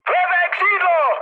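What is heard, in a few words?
left wing, Czech, mood high; speech; War Thunder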